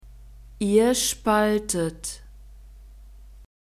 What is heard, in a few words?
"ihr spaltet", German; verbs present tense irregular